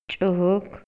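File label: Maya, male; PERCEPCIÓN SENSORIAL; INALI; MayaYuc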